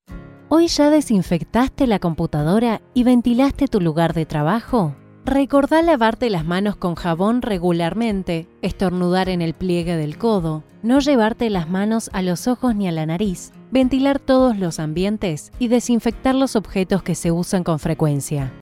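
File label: Spanish female COVID 19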